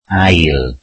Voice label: welsh second

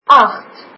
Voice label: eight, afrikaans

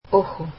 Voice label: spanish eye

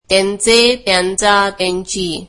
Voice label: marathi their

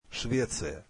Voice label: russian Sweden

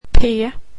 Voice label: "p", swedish alphabet

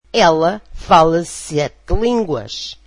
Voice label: portuguese she speaks seven languages

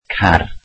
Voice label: welsh car

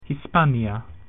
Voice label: "Spain", Latin

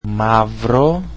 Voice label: "black" greek

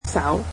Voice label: "hand", Cantonese

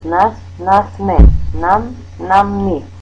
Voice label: "us", Macedonian

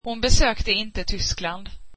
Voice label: swedish she didnt visit Germany

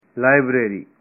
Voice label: library, punjabi

